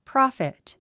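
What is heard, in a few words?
"prophet", English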